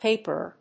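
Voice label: "paperer", English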